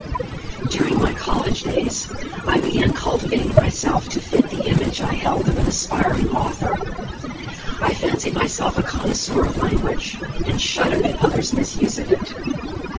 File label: male noisy